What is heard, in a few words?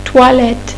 "une toilette", French; Furniture